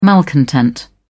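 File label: "malcontent", British English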